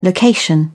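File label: "location", English, British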